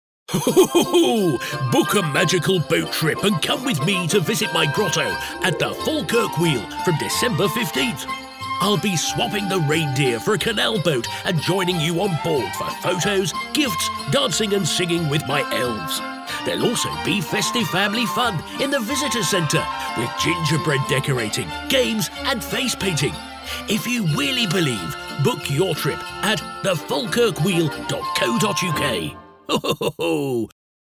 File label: Father Christmas Voice Over